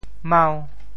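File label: "mau5", Teochew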